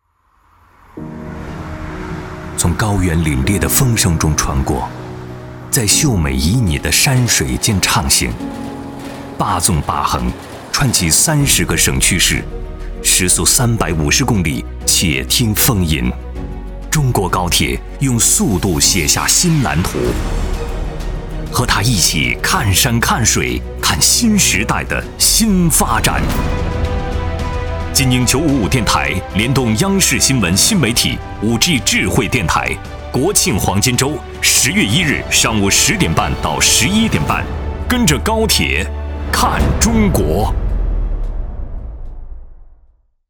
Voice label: 男 宣传片 宣传; 央视; 芒果台; 金鹰之声; 坐着高铁看中国

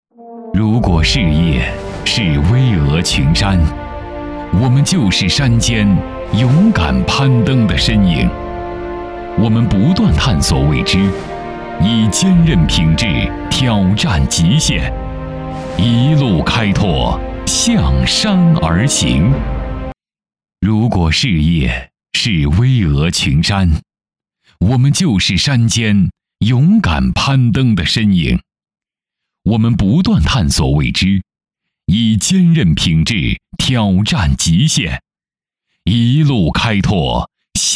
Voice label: 男 旁白; 巍峨群山

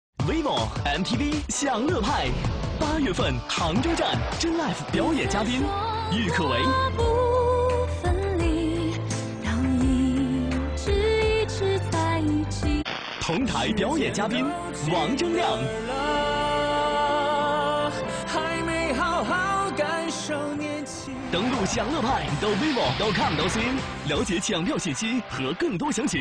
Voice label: 男 电视台宣传 时尚年轻; MTV

